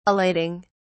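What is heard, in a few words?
"elating", British English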